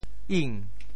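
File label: "ing2", Teochew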